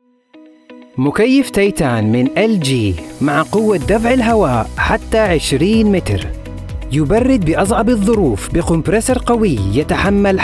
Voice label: Commercial Arabic Classical Arabic Egyptian, Arabic, Middle Eastern (Egypt), Male, Studio, 20s-30s